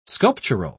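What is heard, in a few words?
"sculptural", English, British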